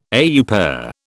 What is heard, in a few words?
"au pair", British